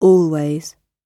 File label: "always", English